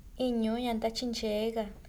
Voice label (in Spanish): Refuerzo, San Juan Mixtepec San Juan Cahuayaxy